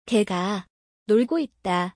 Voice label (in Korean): a dog is playing, ko